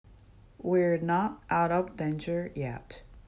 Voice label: "Danger", English